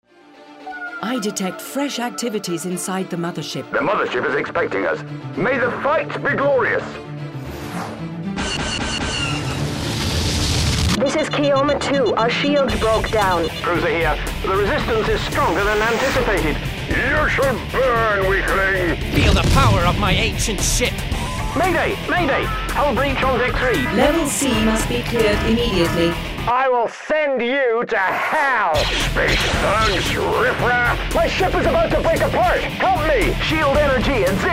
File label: englisch; Dark Star One